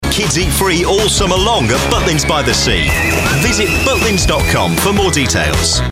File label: voiceover; BUTLINS